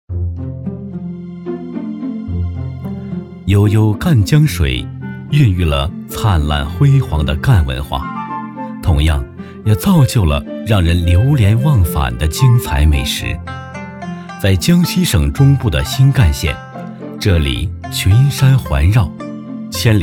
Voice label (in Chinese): 舌尖类; 悠悠赣江水